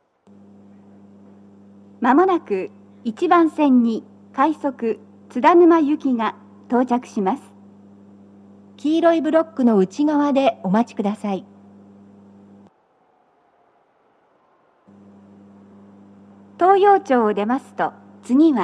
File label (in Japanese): 接近放送, BOSE天井型での収録です。; 放送は「快速 津田沼行き」です。